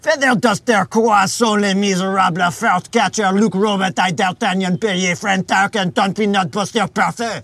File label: french canadian